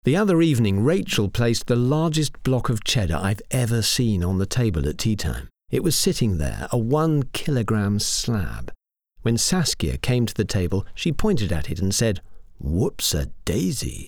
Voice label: Natural diary

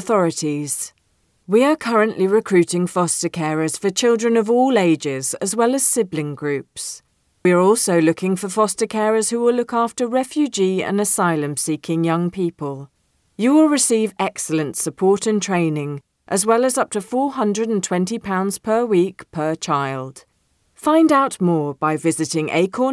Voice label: Fostering Commercial

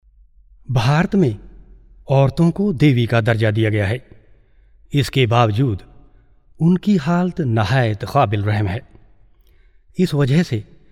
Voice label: urdu naration

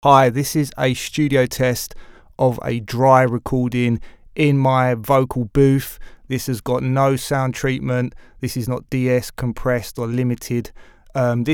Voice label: DRY STUDIO TEST RECORDING

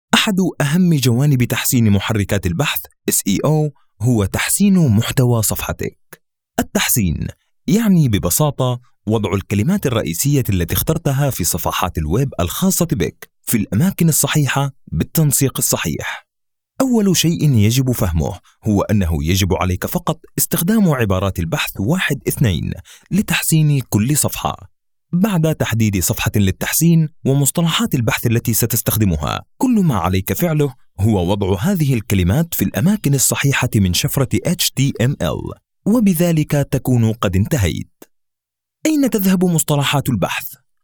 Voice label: E-Learning Tech Narration Standard Arabic

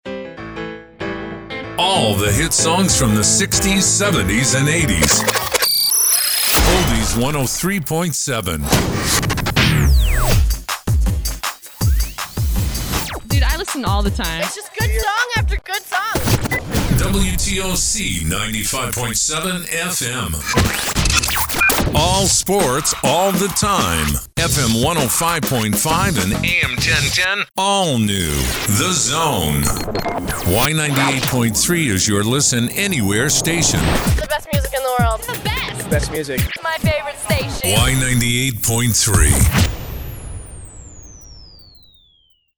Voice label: Imaging

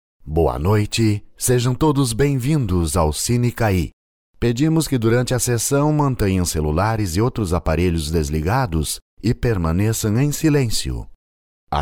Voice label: Impersonating

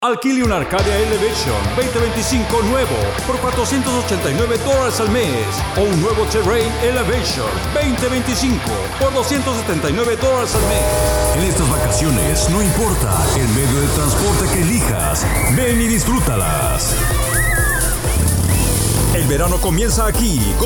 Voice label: Male

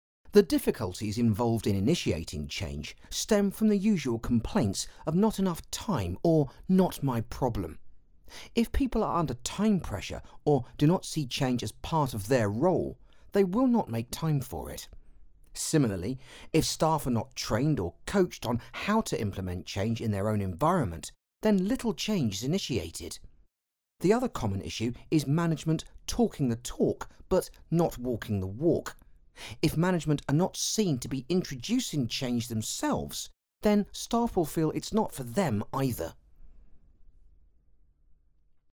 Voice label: E Learning Extract

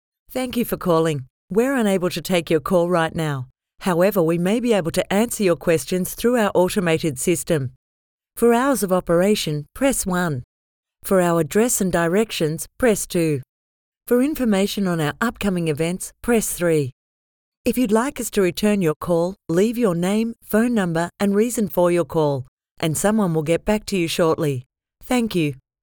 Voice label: On Hold Telephone Message Female Adult

